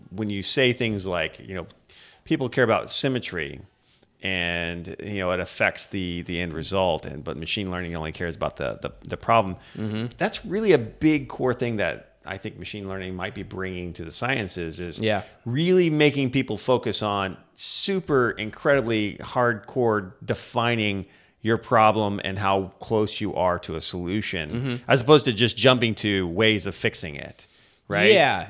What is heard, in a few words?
deep learning podcast